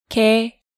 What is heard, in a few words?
"gae", Korean